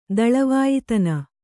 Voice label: "ದಳವಾಯ್ತನ", Kannada

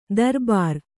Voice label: "ದರ್ಬಾರಿ", kannada

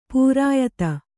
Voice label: "ಪಿರಿಯಾದಿ", Kannada

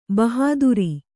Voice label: "ಬಹಾದುರೀ", Kannada

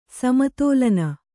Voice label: "ಸಮತೋಲನ", kannada

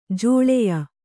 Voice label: "ಜೋಳಯ", Kannada

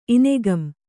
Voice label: "ಇನೆಗಂ", Kannada

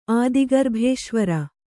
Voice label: "ಆದಿಗರ್ಭೇಶ್ವರ", Kannada

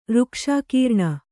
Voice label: "ಋಕ್ಷಾಕೀರ್ಣ", Kannada

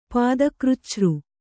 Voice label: "ಪಾದಕೃಚ್ಛø", kannada

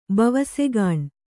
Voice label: "ಬವಸೆಗಾಣ್", Kannada

